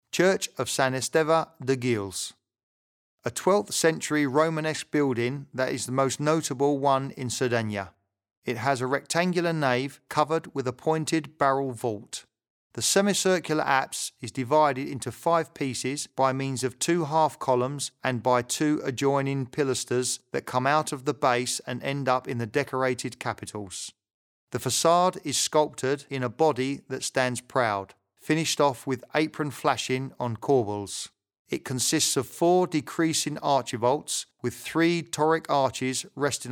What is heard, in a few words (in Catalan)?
locucio angles; sant esteve guils